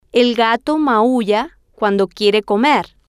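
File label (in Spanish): "el gato maulla cuando quiere comer", spanish, female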